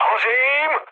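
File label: on fire, Czech, mood low; War Thunder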